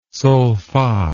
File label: "solfa", english